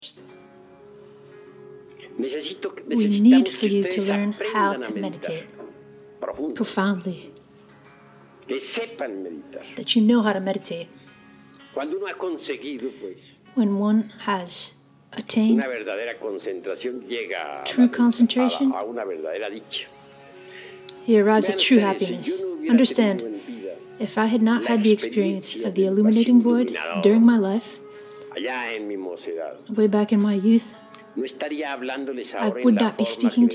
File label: with english voice over